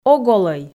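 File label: "ог олӧй", Komi, female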